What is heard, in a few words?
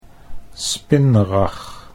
"spinrag", Dutch